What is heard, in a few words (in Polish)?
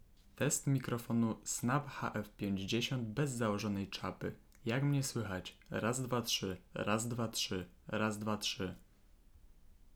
Jakość nagrania bez czapy odszumione, Mikrofon Snab Microtone HF-50